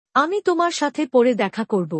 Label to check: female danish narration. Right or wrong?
Wrong